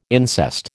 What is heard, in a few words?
"incest", American